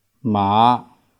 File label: "maa5", Cantonese